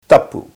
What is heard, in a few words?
"tapu", te reo